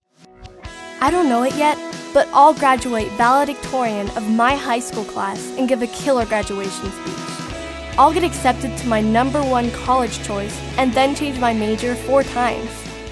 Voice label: youthful honest genuine

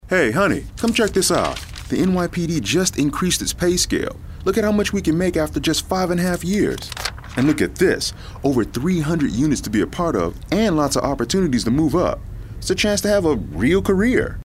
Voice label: real people urban

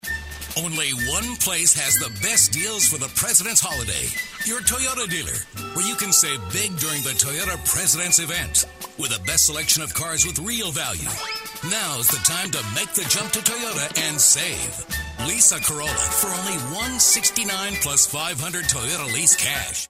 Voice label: animated announcer retail

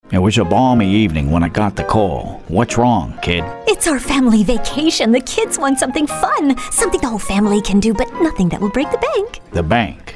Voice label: impressions

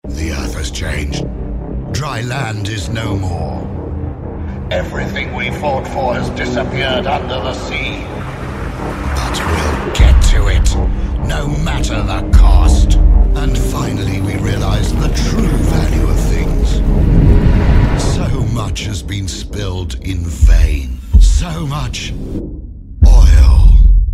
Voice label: movie trailers tough and gritty attitude

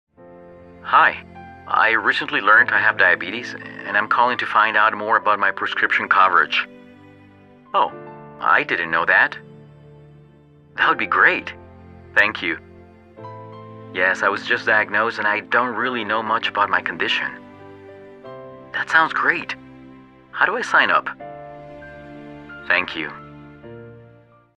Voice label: Concerned Patient

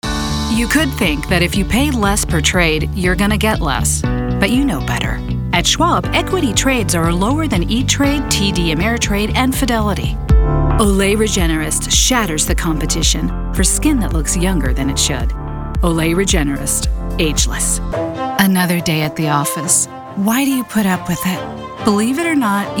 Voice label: Commercial; young adult

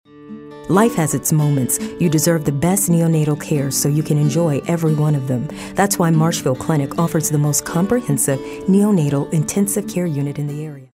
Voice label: thoughtful

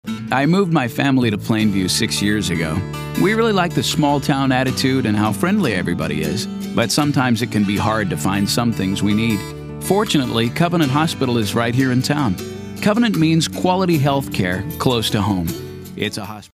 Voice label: friendly

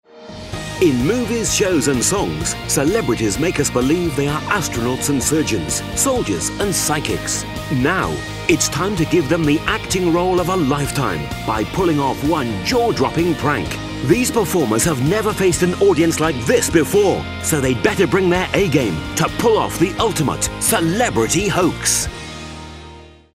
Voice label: narrative upbeat announcer

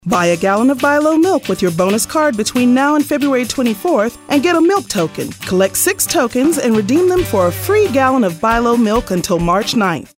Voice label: african american announcer

